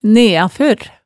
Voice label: "neafør", Hemsedal

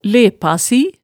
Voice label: "lepasi", hemsedalsmaalet tale

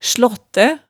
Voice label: "slaattæ", hemsedalsmaalet

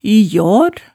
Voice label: "i jaar", hemsedalsmaalet

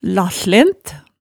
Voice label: "lahslen", hemsedalsmaalet tale

